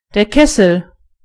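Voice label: cauldron, German voices words; gcompris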